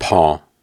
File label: Soft Syllables and Imaginary Words, Viking Vocal